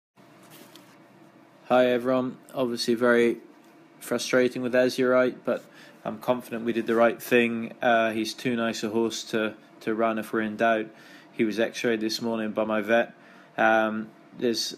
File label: voice note; Azurite IRE